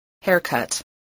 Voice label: "haircut", spelling